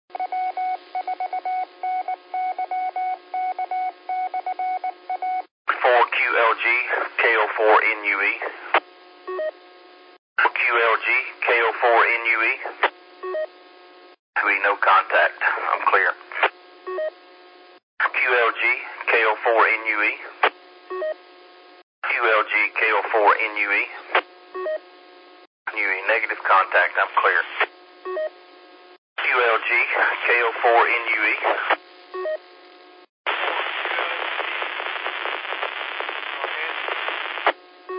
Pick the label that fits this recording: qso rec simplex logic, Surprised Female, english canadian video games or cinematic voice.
qso rec simplex logic